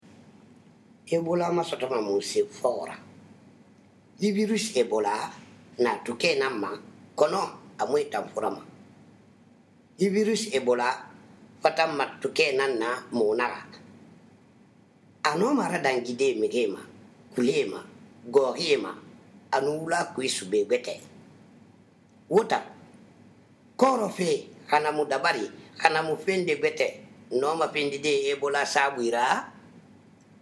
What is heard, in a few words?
sousou; ebola; radio-spots; vhf